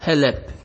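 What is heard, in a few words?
"helep", Nigerian Pidgin, male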